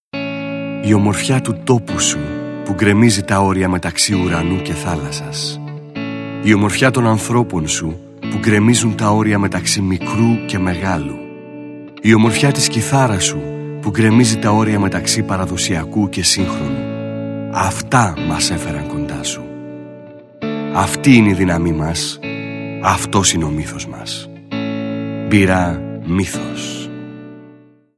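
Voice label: Greek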